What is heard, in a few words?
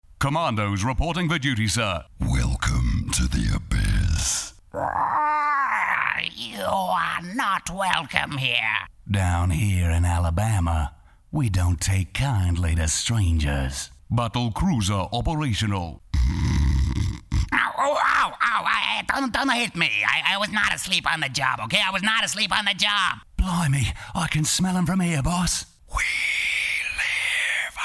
Game Voices